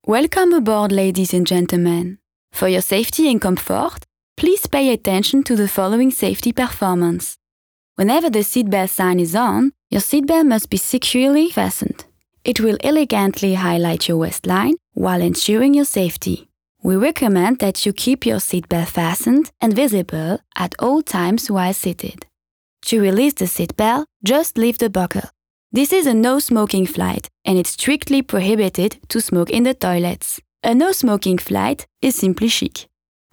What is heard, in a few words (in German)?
EN mit FR Akzent